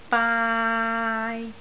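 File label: "baai3", Cantonese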